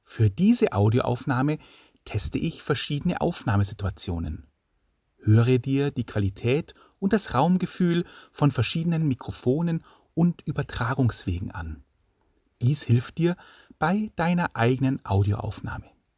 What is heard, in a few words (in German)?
podcast diktiergeraet kleiderschrank, in the wardrobe